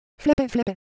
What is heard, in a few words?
Japanese Shape, Ideo Phones